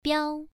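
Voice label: "biao" Mandarin, first tone, pronunciation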